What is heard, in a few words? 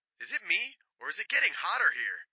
Radio Chatter "I've got it right here!"